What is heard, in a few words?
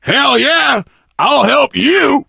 initial meeting, survivor voice biker; Left 4 Dead 2